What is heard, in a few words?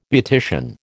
"beautician", American